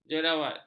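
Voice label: "jerawat", Malay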